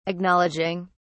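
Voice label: "acknowledging", British English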